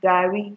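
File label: "garri", Nigerian Pidgin, female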